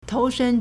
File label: "tou2shen1", Chinese